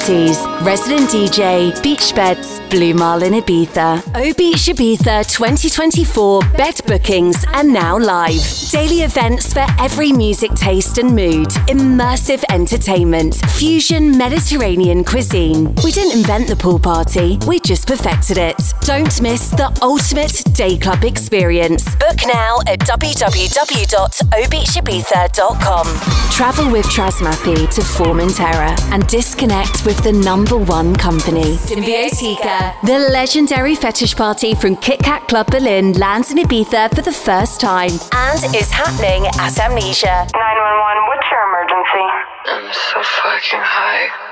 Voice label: english british radio imaging; self-producing voiceover